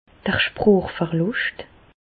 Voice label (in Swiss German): "sprochverluscht", Bas Rhin; medecine; aphasie